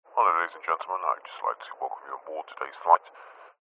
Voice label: transport aircraft pilot says hello ladies and gentlemen i'd like to welcome you; onboard